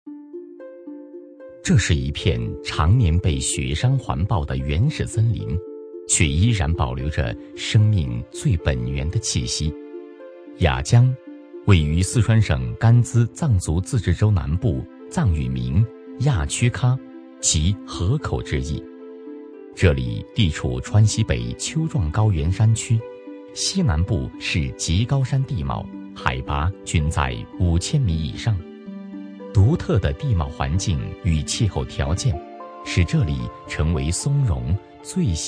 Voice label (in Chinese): 男; 舌尖; 雅江松茸